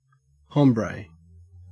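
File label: "hombre", Australian English; pronunciations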